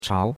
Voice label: "chao2", chinois; Cours de Chinois